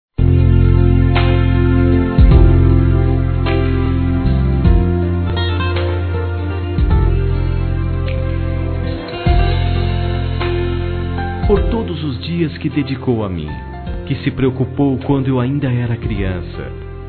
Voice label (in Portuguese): Aniversário Tia Masculino; Aniversários; Aniv Familiar